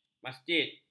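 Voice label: "masjid", Malay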